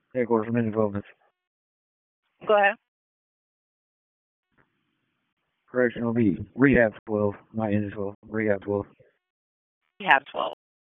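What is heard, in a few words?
Fire Department HQ Dispatch; RFD HQ DISP; wake; p25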